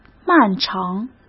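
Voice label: "漫长", Mandarin Chinese; hsk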